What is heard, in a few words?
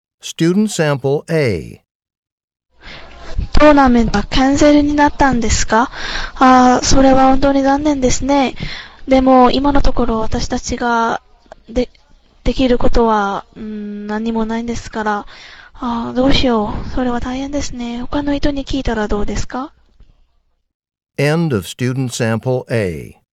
Japanese return telephone call; Return Telephone Call 2 Sample A (Score of 5)